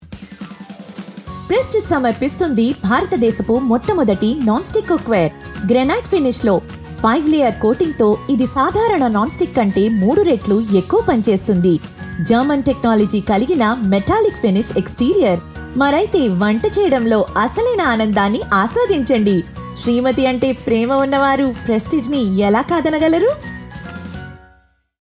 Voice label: Telugu; Prestige